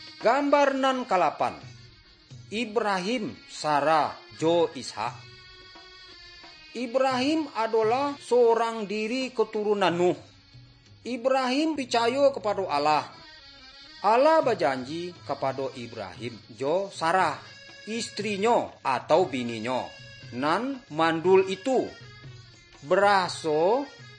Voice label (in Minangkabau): good news minangkabau